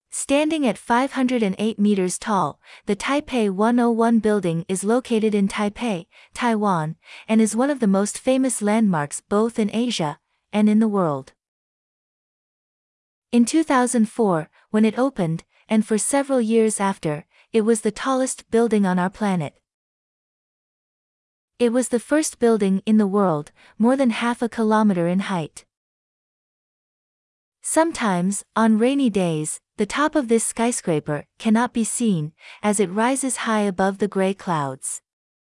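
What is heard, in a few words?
American; 101 building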